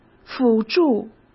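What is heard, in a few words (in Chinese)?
"辅助", Mandarin Chinese; chinese-flashcards; hsk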